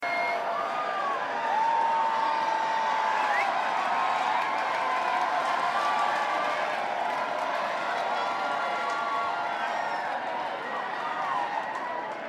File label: Banter Crowd